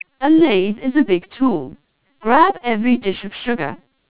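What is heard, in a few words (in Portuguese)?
Voz codificada em LPC; Codificacao de voz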